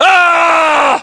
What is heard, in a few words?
melee attack, voiceovers english